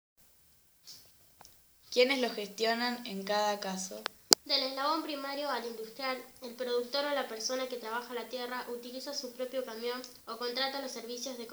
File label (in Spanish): Nota de voz